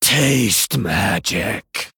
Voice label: Male Human Attack